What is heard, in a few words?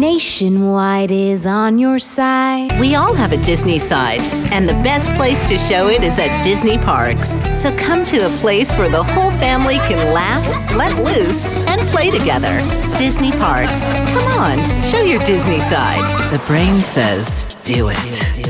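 Commercial, real people female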